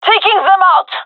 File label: attacking, gendarmerie fem; vo